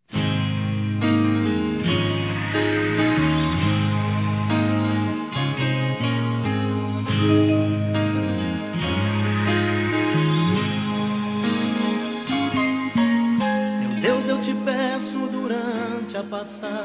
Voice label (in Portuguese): Ano Novo Esposa Masculino; Telemensagens; Natal e Ano novo